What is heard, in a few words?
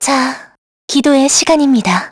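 Vox Skill, Korean; kingsraid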